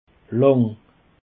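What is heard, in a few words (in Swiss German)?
"lang", Alsatian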